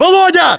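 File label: "volodya", battlechatter, Russian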